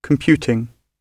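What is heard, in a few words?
"computing", British English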